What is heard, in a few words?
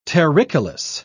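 "terricolous", English, British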